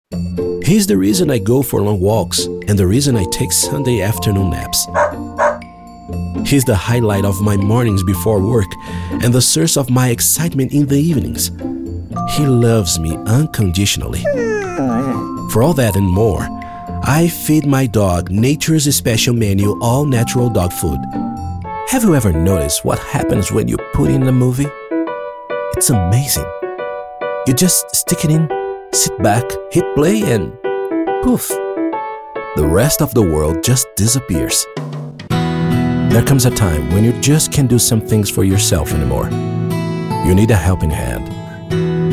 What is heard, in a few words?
TV Commercial